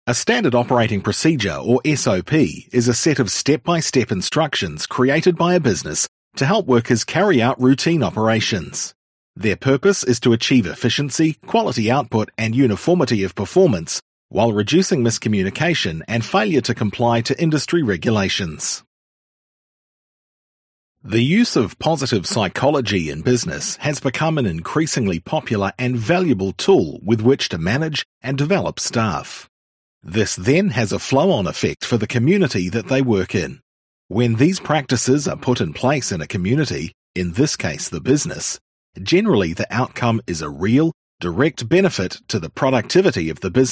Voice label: NEW ZEALAND Corporate